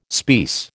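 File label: "speiss", American English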